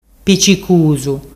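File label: "picicusu", Ligurian dialect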